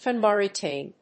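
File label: "phenobarbitone", English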